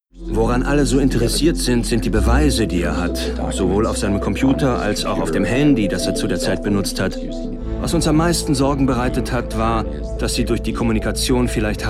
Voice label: Voice Over; Berliner Synchron; Ikarus

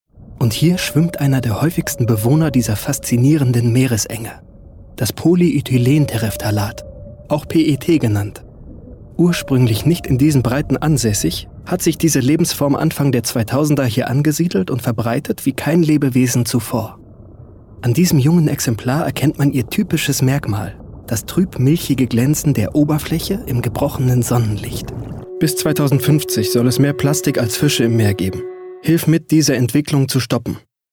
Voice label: VoiceOver; Umwelt Doku

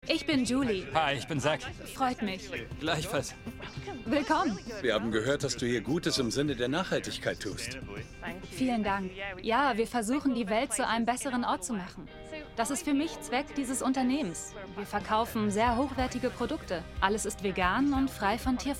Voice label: VoiceOver

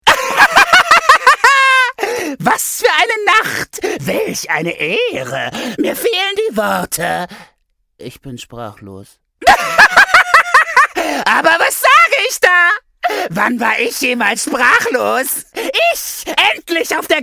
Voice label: comic

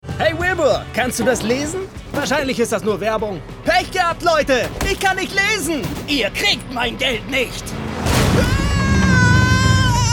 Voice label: Animation

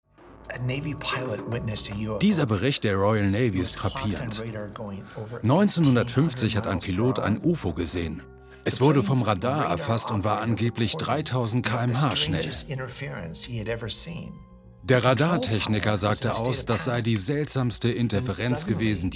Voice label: Voice Over

